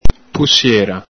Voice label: "pusiera", Venetian